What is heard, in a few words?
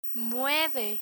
"mueve" Spanish; irregular spanish verbs; learn spanish